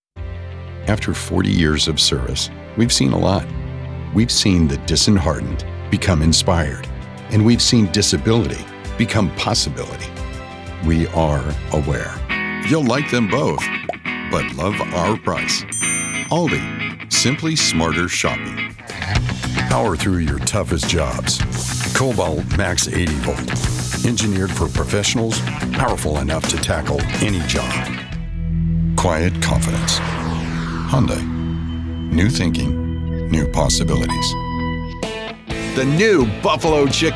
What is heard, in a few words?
Commercial; TV Affiliate Demo